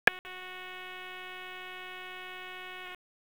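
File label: EMS Dispatch; wake p25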